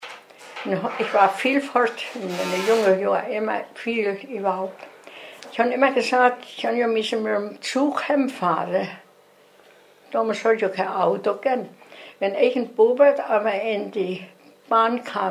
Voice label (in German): hochdeutsch platt; Bell (Hunsrück)